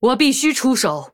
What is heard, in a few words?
Female Human Attack, zh